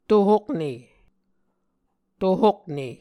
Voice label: "tohokne", muscogee